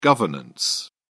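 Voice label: "governance", English, British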